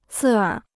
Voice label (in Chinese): "刺耳", Chinese